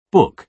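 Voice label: "book", British English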